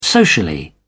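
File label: "socially", British English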